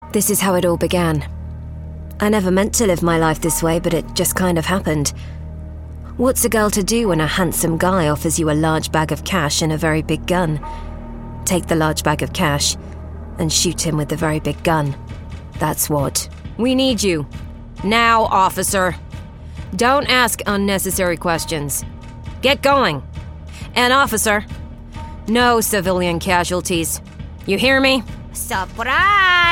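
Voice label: UK English, Video Game; GoLocalise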